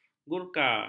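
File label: "gurkha", Malay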